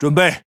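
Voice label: Speech Pilots Torpedo Ready, Chinese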